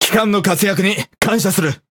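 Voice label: Speech Quick Commands Thank You Ship, Japanese; World Of Warships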